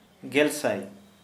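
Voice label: one thousand, Santali, male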